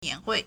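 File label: "nian2hui4", Mandarin Chinese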